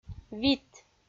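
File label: "вит", Komi, female; audio dict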